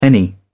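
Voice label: "any", British English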